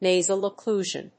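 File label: "nasal occlusion", English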